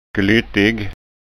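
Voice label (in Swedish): "glyttig", Scanian Swedish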